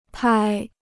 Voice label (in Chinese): "拍", Chinese